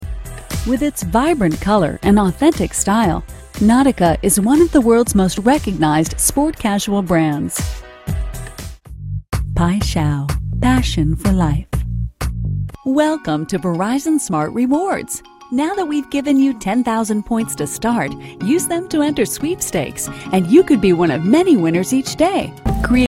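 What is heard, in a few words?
Provoice English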